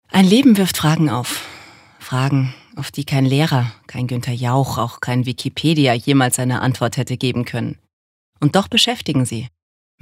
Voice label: Schauspiel Sanft

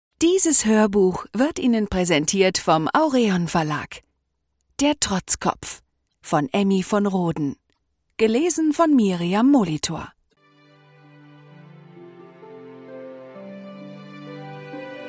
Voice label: Schauspiel Freundlich

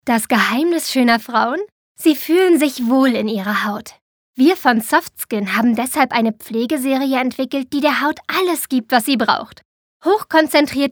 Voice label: Soft Skin Freundlich

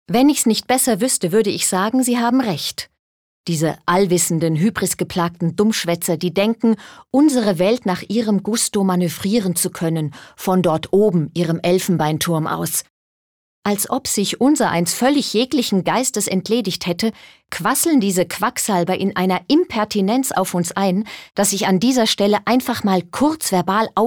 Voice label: Energisch; Dummschwaetzer

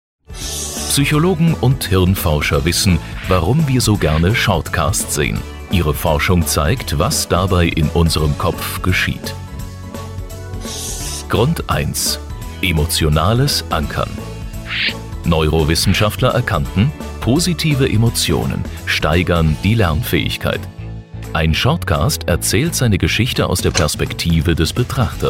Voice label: Freundlich

